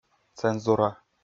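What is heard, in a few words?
"цензура", Komi, male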